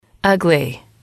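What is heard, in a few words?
"ugly", English, female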